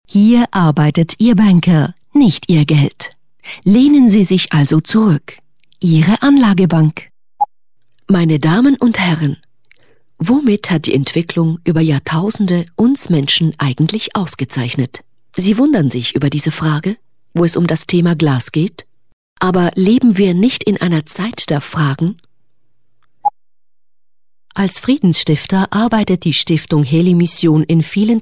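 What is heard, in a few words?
german swiss female commercial; voice demos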